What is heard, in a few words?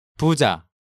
"Buja", Korean